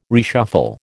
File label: "reshuffle", American